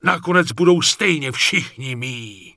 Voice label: Necromancer Warcry; wowpreklad; czwow

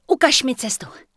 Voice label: Night Elf Female Sentinel NPC Farewell; wowpreklad; czwow

